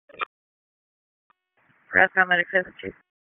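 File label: EMS Dispatch; wake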